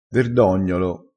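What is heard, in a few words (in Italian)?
"verdognolo", dialettale, crespinese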